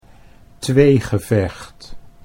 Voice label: "tweegevecht", Dutch